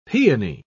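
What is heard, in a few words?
"paeony", British English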